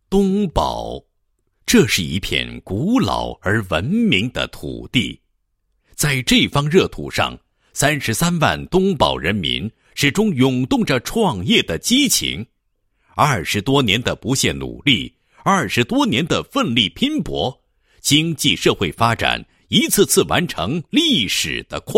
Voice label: 男-政府建设; 东宝“互联网加社会救助”

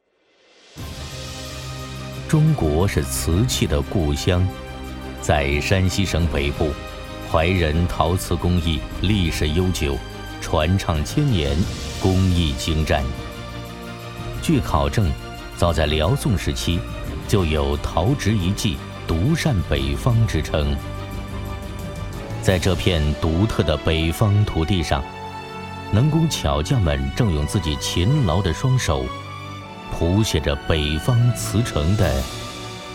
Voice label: 男 人文记录 片头; 怀仁陶瓷

